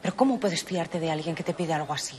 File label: Voice Acting es F